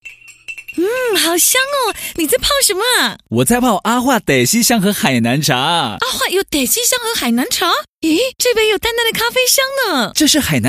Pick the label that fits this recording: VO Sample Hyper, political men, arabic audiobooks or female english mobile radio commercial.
VO Sample Hyper